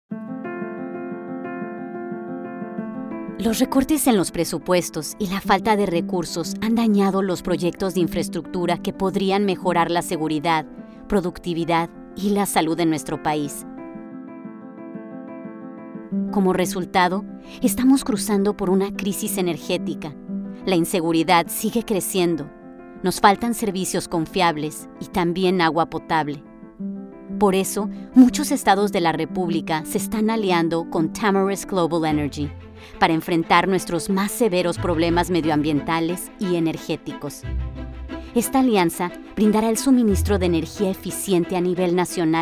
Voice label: spanish latin american narration